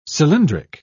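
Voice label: "cylindric", British English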